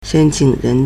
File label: "shen1qing3ren2", Chinese